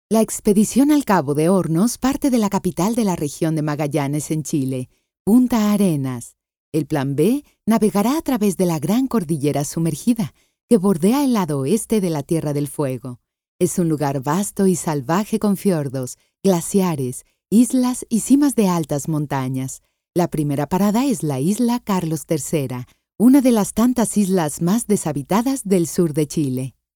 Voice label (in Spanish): locutora mexicana; mexico